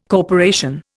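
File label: "corporation", Indian English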